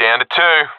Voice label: enemies left two, professional epic; vo; Counter Strike Model Pack; CS:GO